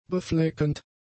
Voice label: "befleckend", German; adjectives